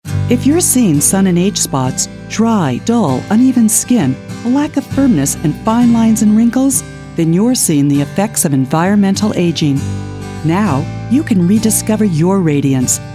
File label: Commercial